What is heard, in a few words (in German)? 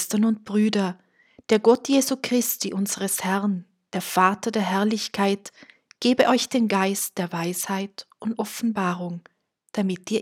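2. Lesung Himmelfahrt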